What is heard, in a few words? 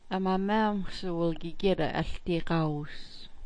"amamaxs", smalgyax